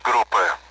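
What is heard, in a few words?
Speech, Russian, AWACS; DCS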